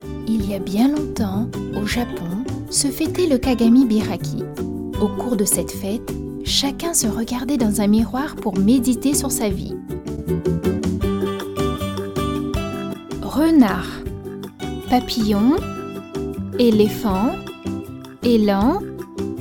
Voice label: demo kid material

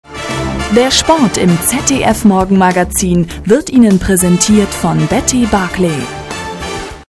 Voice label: TV Trailer

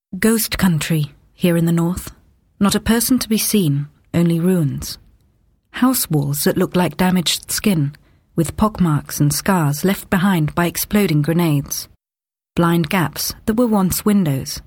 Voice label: Documentary

